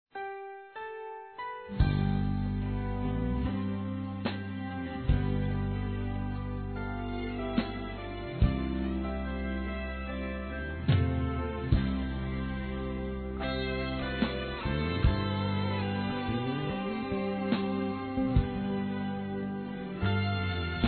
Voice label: Mensagem Par Pai Feminino; Telemensagens; Telemensagens 2017

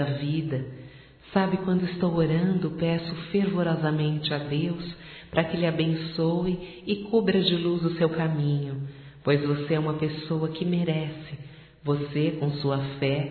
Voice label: Aniversário Irmã Evangélico Feminino; Aniversarios; Telemensagens; Telemensagens 2017

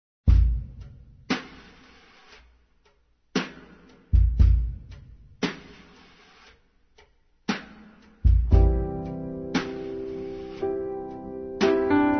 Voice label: Conquista Masculino; Telemensagens; Conquistas Cantadas paqueras; Telemensagens 2017

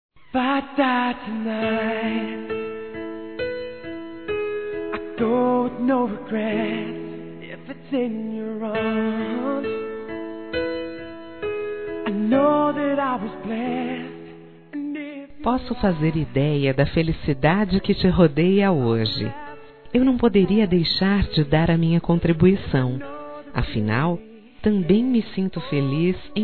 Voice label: NEUTRO (F); Aniversários; Aniversários diversos; fem

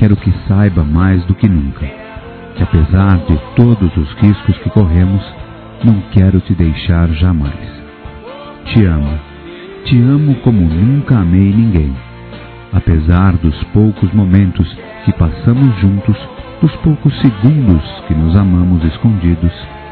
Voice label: Telemensagens Amor Masc; Telemensagens 2017

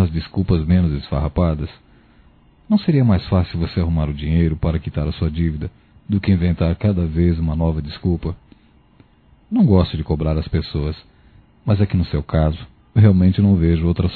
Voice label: Pendência Financeira Masculino, Broncas; Telemensagens 2017

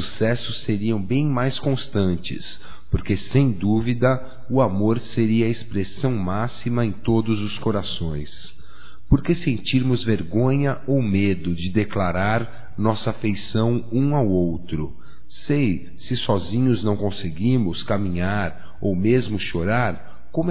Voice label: AMIZADE PARA AMIGO (M); Amizades; Telemensagens 2017

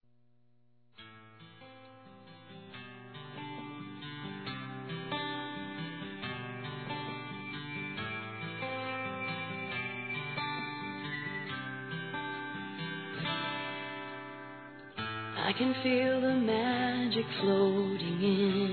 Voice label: NAMORO F; Aniversários; ANIVERSARIO romantico; fem

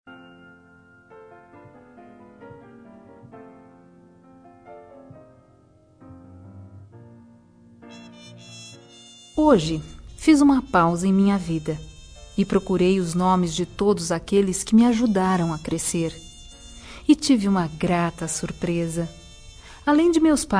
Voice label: Obrigado professora, fem.; Datas importantes

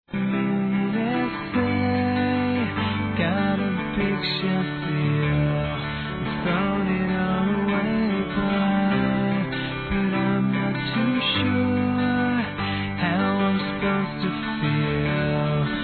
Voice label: ANIV. ROMANTICO M

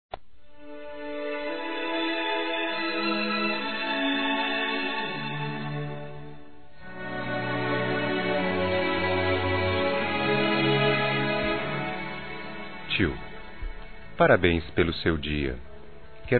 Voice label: Aniversário Tio Masculino; Aniversários; Aniv Familiar

